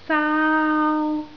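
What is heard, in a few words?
"saau1" Cantonese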